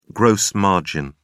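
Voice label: "gross margin", British English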